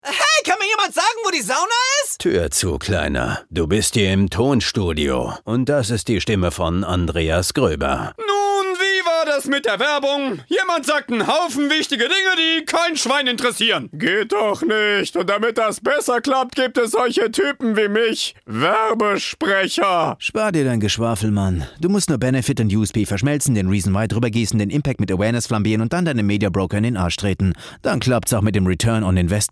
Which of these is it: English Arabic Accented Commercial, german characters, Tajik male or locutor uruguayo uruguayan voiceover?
german characters